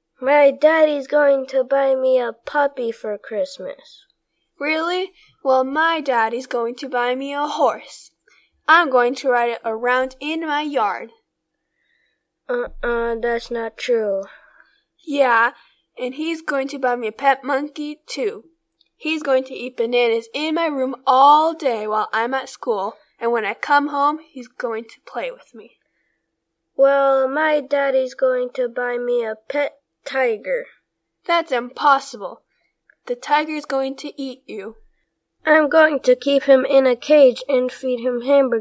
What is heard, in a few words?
dialog